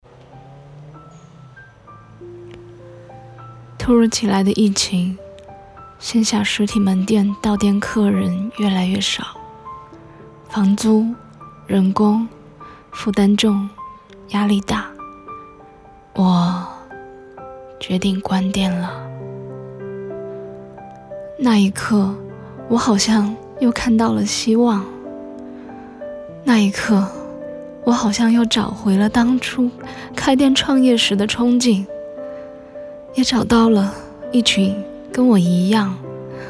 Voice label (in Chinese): 女生自述先深沉失落再到有希望